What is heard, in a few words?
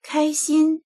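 "kai1xin1", Chinese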